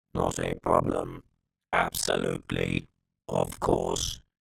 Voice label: science fiction robot says not a problem absolutely of course